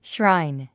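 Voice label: "shrine", English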